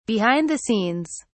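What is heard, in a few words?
"behind the scenes", British English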